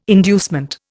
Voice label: "inducement", Indian

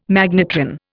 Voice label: "magnetron", Indian

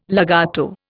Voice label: "legato", Indian

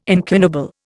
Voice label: "incunable", Indian

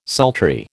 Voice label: "sultry", American

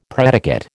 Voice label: "predicate", American

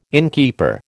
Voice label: "innkeeper", American

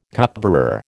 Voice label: "cupbearer", American

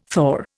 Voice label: "thaw", Indian

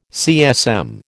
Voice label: "CSM", American

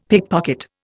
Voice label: "pickpocket", Indian; Dictionary

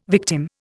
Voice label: "victim", Indian

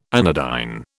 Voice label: "anodyne", British

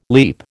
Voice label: "leap", American

